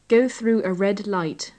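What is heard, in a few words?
go through a red light, British English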